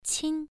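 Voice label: "qin", Mandarin Chinese syllable, first tone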